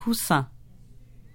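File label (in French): "coussin", French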